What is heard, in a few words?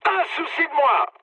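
French player killed enemy mood high; War Thunder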